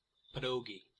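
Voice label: "pierogi", English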